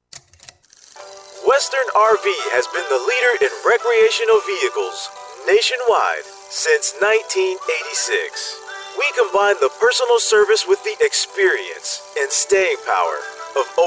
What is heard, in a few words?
narrations